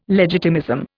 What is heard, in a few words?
"legitimism", Indian